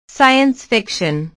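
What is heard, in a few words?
"science fiction", English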